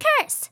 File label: curse, RPG Voice; RPG Voice Starter Pack